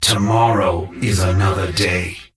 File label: Death Knight Male Low Farewell